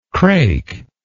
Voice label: "crake", English

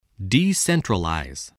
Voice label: "decentralize", English

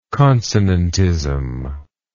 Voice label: "consonantism", English

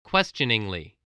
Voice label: "questioningly", english

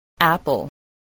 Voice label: "apple", English

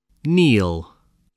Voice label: "kneel", English

